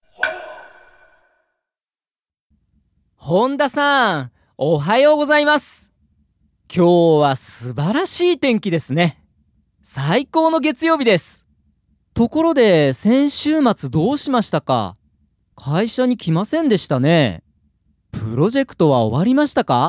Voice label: dialog; JapanesePod101; Beginner Season 1